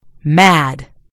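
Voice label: "mad", British English; gcompris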